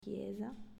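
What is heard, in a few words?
"Chiesa", Italian, female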